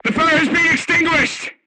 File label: voice message fire extinguished, crew tanks portuguese